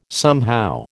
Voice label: "somehow", American

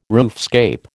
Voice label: "roofscape", American

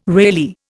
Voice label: "Reilly", Indian

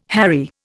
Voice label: "harry", Indian

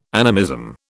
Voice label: "animism", British

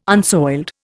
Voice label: "unsoiled", Indian

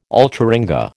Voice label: "alcheringa", American

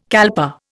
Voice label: "kalpa", Indian

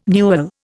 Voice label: "newel", Indian

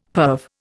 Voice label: "perve", Indian; Dictionary

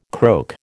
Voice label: "croak", American

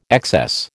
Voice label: "excess", American

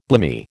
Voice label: "blimey", American

